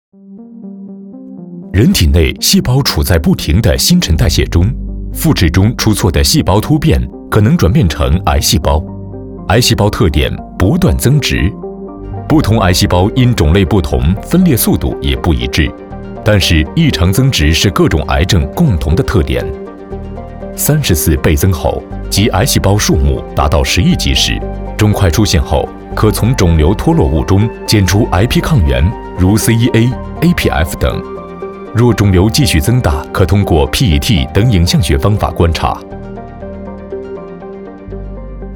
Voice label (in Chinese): 男 专题 医学